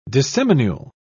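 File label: "disseminule", British English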